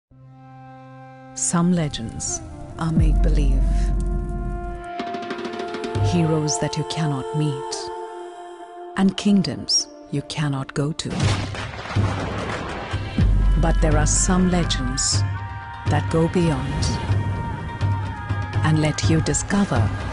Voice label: Female English